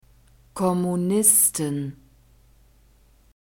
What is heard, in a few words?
"kommunisten", german